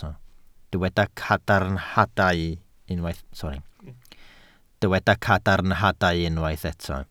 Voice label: "cadarnhadau", Welsh

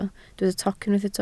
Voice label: "toc", Welsh

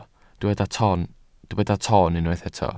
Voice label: "toon", Welsh

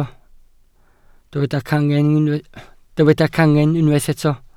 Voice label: "cangen", Welsh

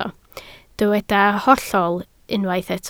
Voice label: "hollol", Welsh

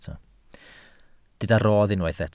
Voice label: "rodd", Welsh

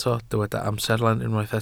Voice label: "amserlen", Welsh

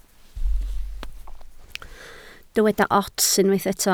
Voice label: "ots", Welsh

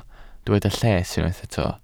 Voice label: "lles", Welsh

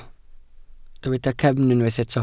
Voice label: "cefn", Welsh

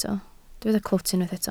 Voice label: "clwt", Welsh

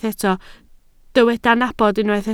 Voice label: "nabod", Welsh

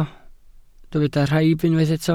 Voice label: "rhaib", welsh

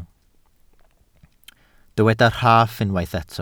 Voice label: "rhaff", Welsh

